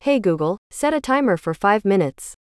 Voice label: hey g us female set a timer for 5 minutes